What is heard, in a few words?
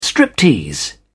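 "striptease", British English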